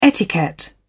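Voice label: "etiquette", British English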